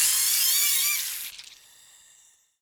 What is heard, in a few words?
hurt